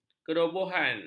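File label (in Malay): "kerobohan", Malay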